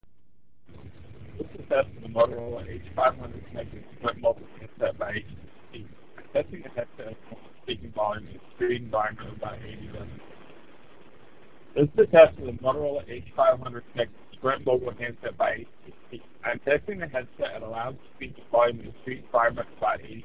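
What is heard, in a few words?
street 80db, Bluetooth headset; Moto H500; Mogul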